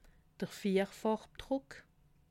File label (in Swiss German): "vierfarbdruck", alsace